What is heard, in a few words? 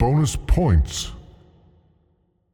voice of god game phrase "bonus points" wet; sonniss gdc